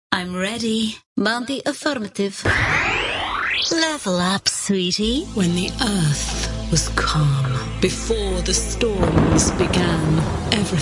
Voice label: english british video games